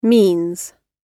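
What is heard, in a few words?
"means", British English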